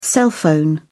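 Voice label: "cell phone", British English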